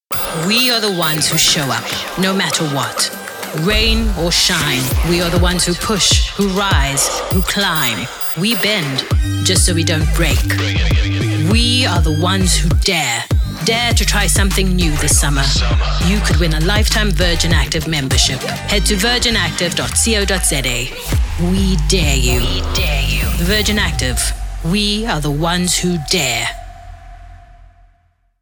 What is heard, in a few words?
English Hard Sell